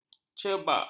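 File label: "cebak", Malay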